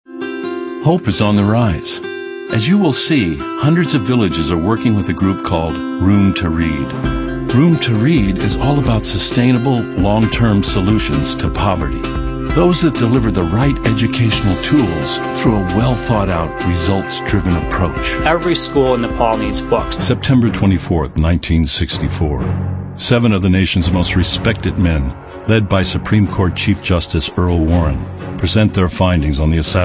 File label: male narration